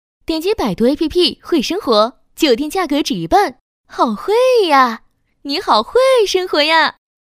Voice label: 女 品牌广告-【会生活-甜美活泼】; 轻松活泼

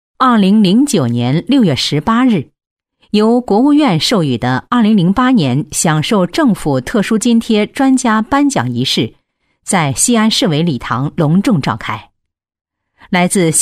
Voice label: 女 新闻播报 客观, 成熟知性

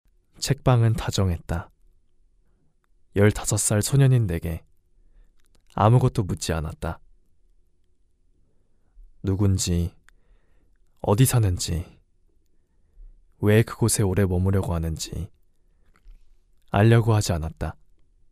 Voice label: 韩语男声

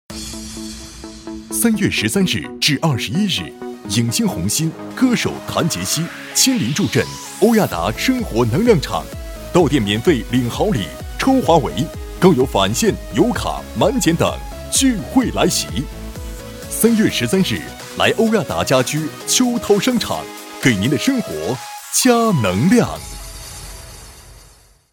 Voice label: 男 家居广告 大气; 大气恢弘 大气浑厚

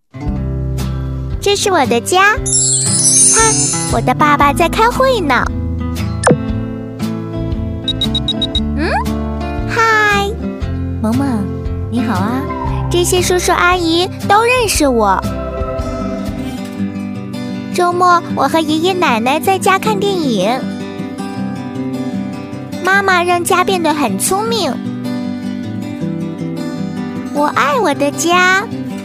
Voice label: 女-童声【女童 -稚嫩可爱】

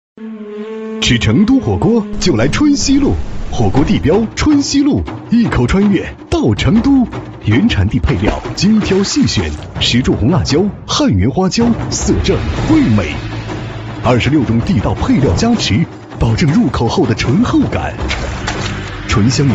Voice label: 男 TVC广告激情版; 大气激昂; 《又见春熙路火锅》

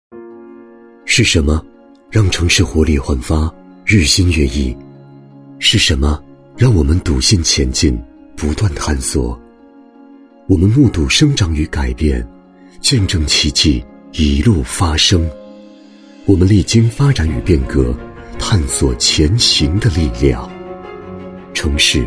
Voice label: 男 内心独白; 全能型 自然诉说

